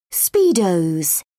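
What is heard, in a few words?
"speedos", English, British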